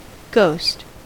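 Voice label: "ghost", US English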